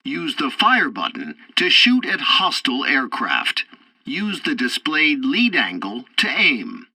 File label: tutorial bomber turrets, english; War Thunder